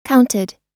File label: "counted", us